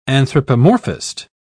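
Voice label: "anthropomorphist", English, British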